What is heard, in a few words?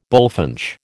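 "bullfinch", American English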